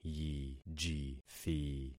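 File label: "yijithi", concatenated syllables; Affixing experiment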